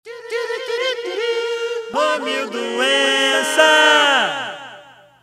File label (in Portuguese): VINHETA; os radiotas